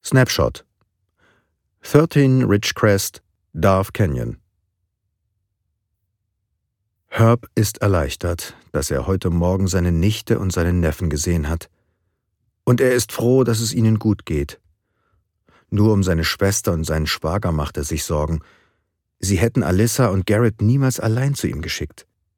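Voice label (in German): Jugendbuch, Sprecher, Männer; Berlin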